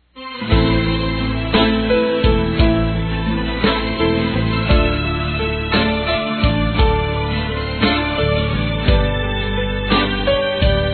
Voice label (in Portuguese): irmã f; Aniversários; Aniversário Distante; Fem